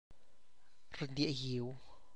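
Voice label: smells bad, Tlacochahuaya Zapotec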